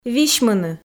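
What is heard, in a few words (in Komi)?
"висьмыны", Komi, female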